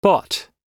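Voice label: "bought", US English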